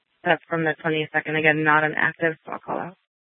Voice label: Gilbert Police Dispatch